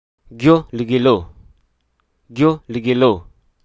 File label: "grab", Hruso